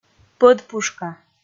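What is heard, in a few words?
"пӧдпушка", Komi, female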